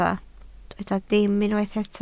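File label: "dim" Welsh, spoken word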